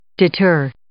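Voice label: "deter", English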